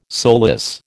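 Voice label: "soulless", American English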